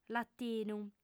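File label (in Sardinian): "latinu", Sardinian, female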